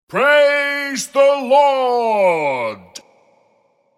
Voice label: Praise The Lord Spoken, Sound of a preacher shouting ‘Praise The Lord’.; Exclamations; Voice; Sound Effects:Voice